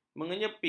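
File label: "menyepi", Malay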